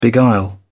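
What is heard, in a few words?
"beguile", en, uk British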